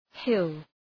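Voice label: "hill", English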